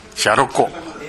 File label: "yaroko", minamiyamagata